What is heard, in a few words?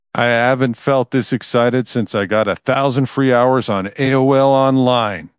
happy, speech; fertig classic games